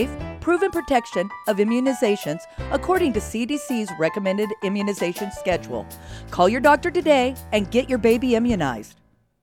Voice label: Babies female; Immunization Toolkit; Immunization Campaign; Medi-Cal